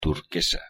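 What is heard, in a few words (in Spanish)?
"turquesa" Spanish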